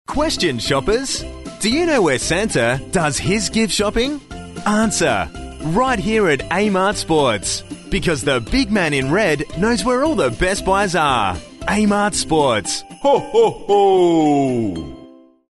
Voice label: instore sample